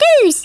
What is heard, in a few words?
"fire", Hungarian; Worms Armageddon